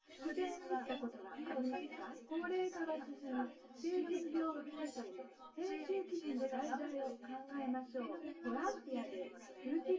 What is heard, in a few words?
female synth conv sim; SiSEC10; underdetermined